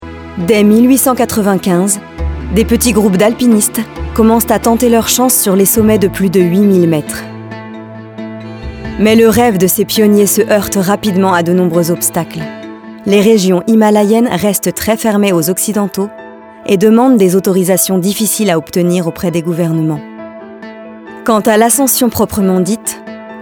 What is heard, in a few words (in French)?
VOIX OFF DOCUMENTAIRE